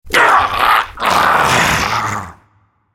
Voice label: dying, en US; Khazix; Character Voices - English